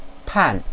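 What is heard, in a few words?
"pan", Mandarin Chinese, fourth tone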